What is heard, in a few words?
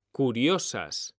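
"CURIOSAS", Spanish; mekanta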